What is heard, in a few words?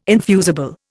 "infusible", Indian